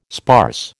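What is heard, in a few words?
"sparse", American English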